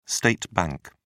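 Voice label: "state bank", British English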